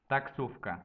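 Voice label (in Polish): "taksowka", Polish; multimedia glossaries; transport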